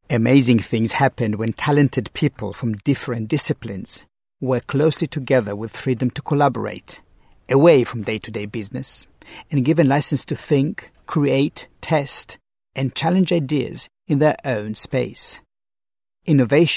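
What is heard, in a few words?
Hebrew English; Hebrew, Middle Eastern, Male, 20s-40s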